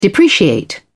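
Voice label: "depreciate", English, British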